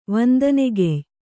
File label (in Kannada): "ವಂದನೆಗೆಯ್", kannada